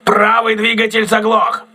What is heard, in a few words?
voice message right engine damaged, crew ships russian